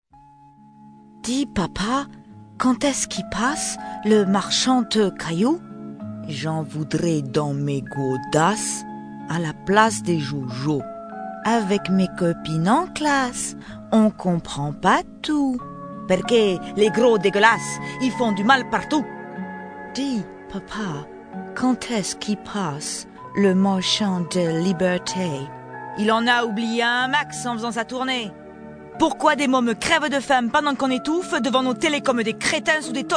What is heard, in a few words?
German, Russian, Swiss, Spanish, English, Paris, Sud, French Accents